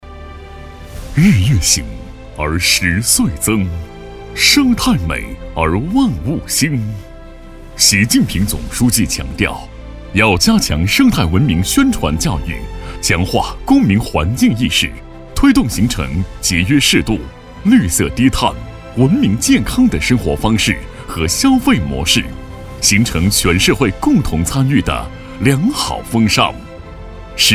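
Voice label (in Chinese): 大气 沉稳 专题, 普通话, 男, 中年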